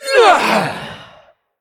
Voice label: Female Bronze Dragon Attack